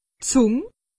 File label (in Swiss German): "zung", alsace; medecine